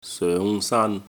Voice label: "soeng5saan1", Cantonese